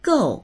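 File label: "gou4", Mandarin Chinese; 够; 足りる、十分である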